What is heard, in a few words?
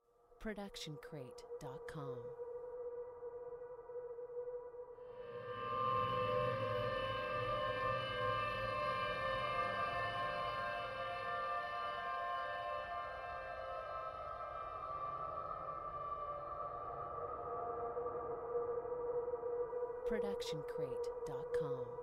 creepy voices; soundscrate